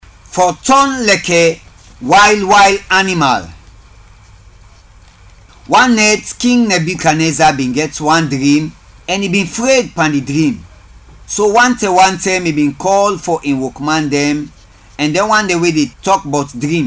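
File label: Krio Sierra Leone; Africa; Stories; BECOMING A WILD ANIMAL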